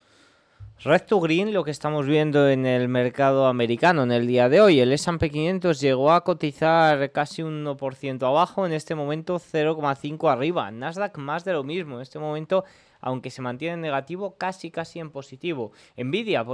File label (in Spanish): radio; noticias bolsa